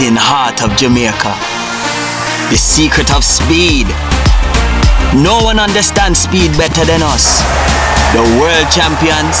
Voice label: Commercial, Jamaican Accent; Puma